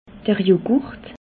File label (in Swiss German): "yogurt", alsace